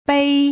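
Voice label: "bei", Mandarin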